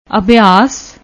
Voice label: "abhias", Punjabi; ਅਭਿਆਸ